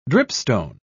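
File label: "dripstone", English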